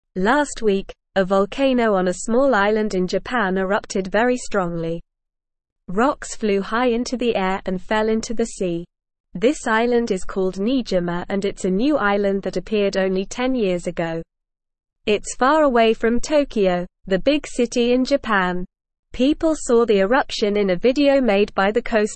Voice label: English Newsroom Lower Intermediate NORMAL Reading; Big Boom Volcano on New Island in Japan